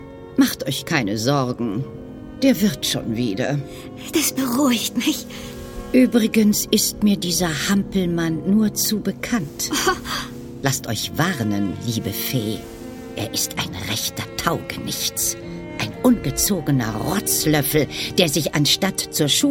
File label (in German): Hörspiel, frauen; Pinnochio